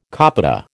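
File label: "copita", American English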